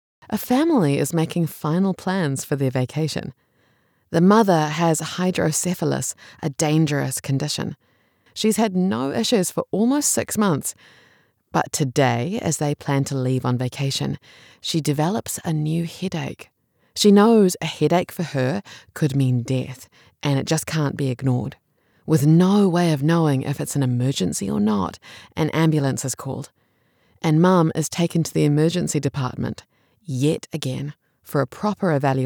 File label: english new zealand audiobooks